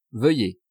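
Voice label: "veuillez", French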